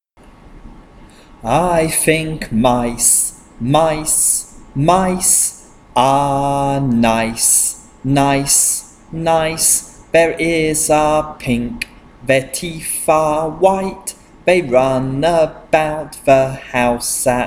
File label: Medial Long Vowels Chant i_e